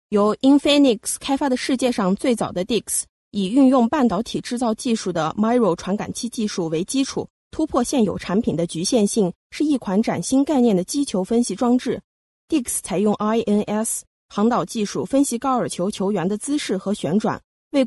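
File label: Chinese, female, various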